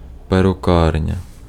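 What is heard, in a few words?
Ukrainian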